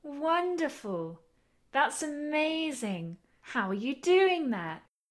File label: interested